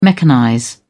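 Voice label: "mechanize", English, British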